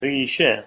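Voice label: "risheh", Persian, male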